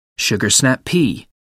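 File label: "sugar snap pea", British English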